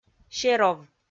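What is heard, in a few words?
"серов", Komi, female; kpv audio dict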